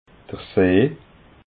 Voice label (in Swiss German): "see", alsace